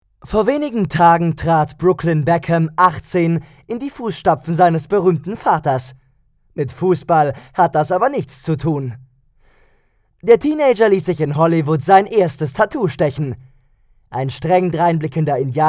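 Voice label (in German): commentary, Dynamisch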